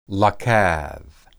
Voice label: "lakad", Hebrew